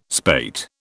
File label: "spate", British